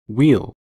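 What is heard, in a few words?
"weel" English, US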